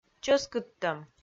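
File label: "чӧскыдтӧм", Komi, female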